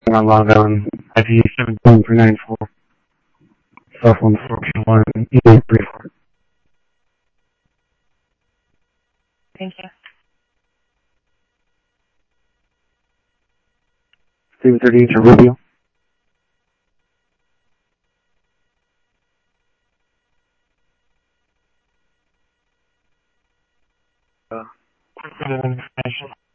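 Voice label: Simulcast police department, scanner audio trunk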